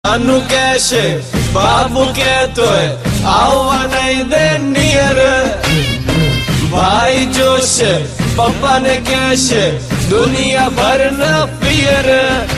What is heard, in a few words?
Gujarati Funny